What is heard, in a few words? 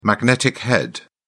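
"magnetic head", British English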